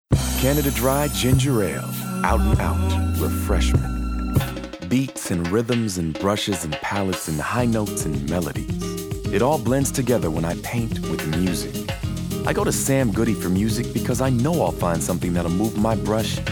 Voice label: commercial, men

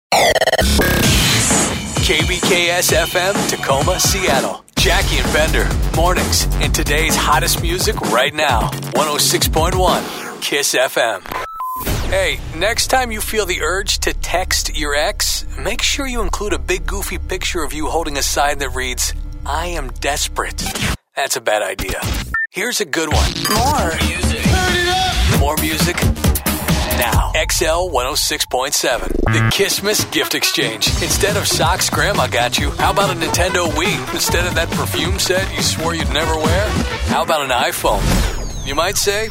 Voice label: radio imaging male top 40

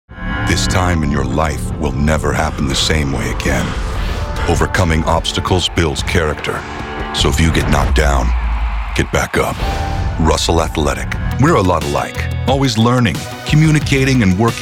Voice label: commercial male

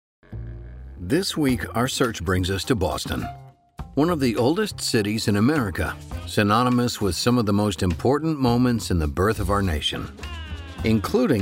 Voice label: narration

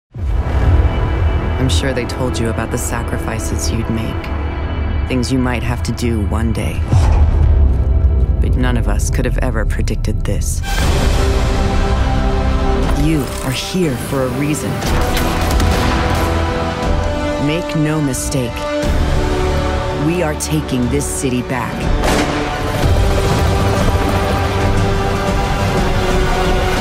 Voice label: trailers women

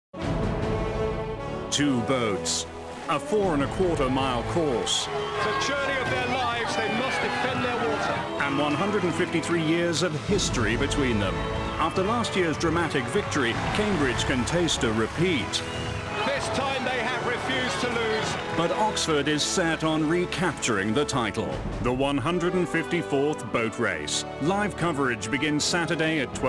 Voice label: promo

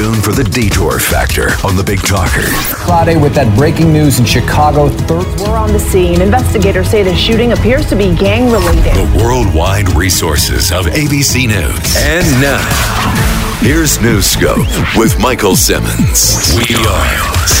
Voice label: radio newstalk, radio imaging

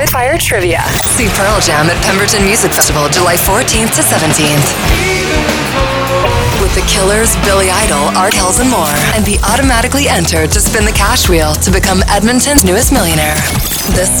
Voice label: radio rock, radio imaging

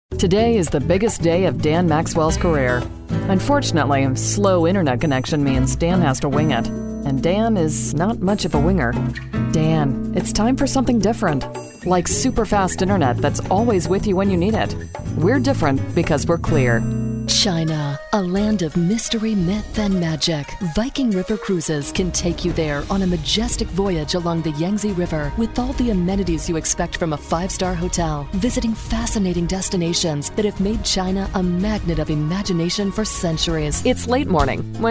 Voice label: commercial, commercial women

